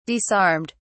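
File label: "disarmed", British English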